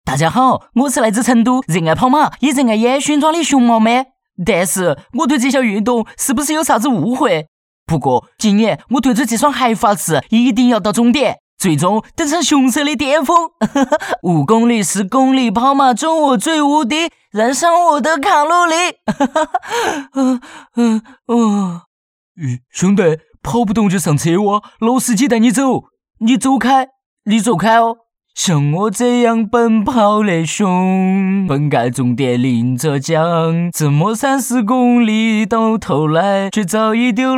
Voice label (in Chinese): 四川话搞怪; 2018成都马拉松熊猫MAN形象